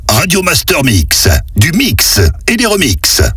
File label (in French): Mix et remix Speed, Voix off; Radio Master Mix; Habillage